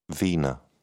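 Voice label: "vena", British English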